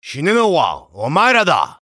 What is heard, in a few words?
vo chatter positive; eisen; frag